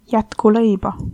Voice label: bon appetit, estonian